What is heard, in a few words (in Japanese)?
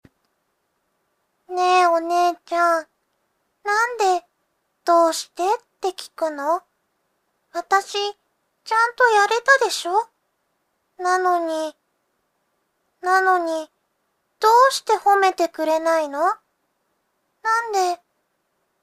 セリフ ロリ